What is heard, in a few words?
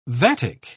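"vatic", British English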